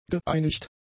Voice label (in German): "geeinigt", German; adjectives